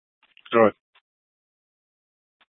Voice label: PD Dispatch; Scottsdale; Sctdal PD Simulcast H; A01 - Sth Dispatch